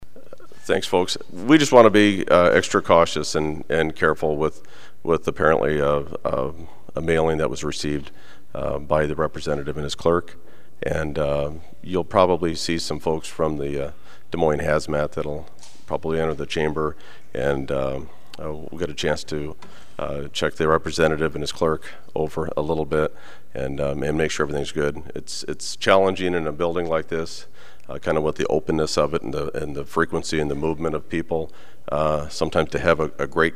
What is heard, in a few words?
Announcement